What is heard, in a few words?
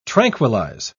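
"tranquillise", British English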